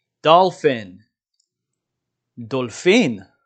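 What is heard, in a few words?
"dolphin" English, "דולפין" Hebrew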